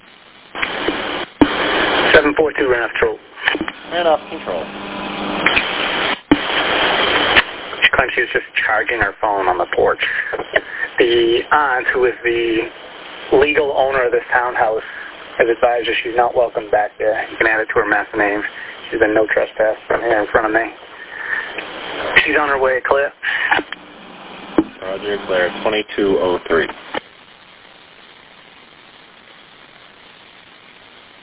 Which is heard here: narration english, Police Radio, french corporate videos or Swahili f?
Police Radio